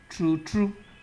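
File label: "truetrue", Nigerian Pidgin, male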